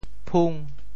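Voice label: "phung5", Teochew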